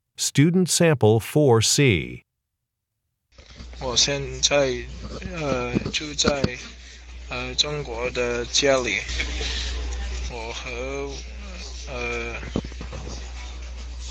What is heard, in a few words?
chinese conversation